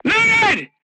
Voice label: voice message charged, crew tanks portuguese